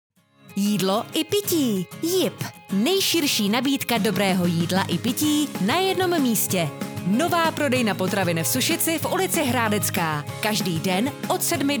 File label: female czech voice over sales